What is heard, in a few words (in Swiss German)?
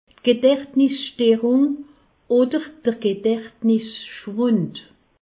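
"gedachtnisssterung", Alsatian; medecine; troubles cognitifs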